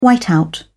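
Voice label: "whiteout", British English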